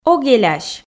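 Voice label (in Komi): ог элясь, Komi, female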